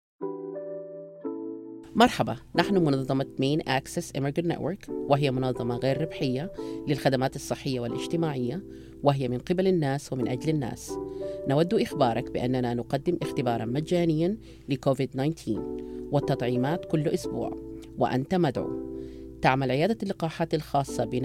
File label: Covid PSA Arabic; foreign language psas about covid vaccines and testing